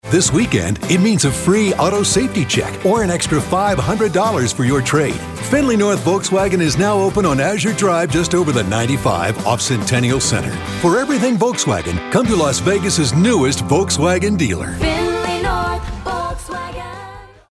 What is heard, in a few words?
Friendly